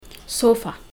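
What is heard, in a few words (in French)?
"canape", Amharic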